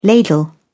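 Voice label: "ladle", British English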